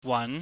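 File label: "wan", Cantonese, mid rising tone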